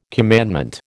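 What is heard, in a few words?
"commandment", American English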